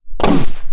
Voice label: Alien Scientist; little robot sound factory